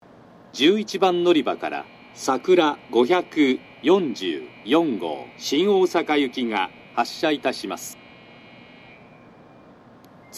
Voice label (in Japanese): 11番のりば発車放送, 新幹線ホーム; 筑後船小屋; 11番のりば発車放送（さくら544号 新大阪行き）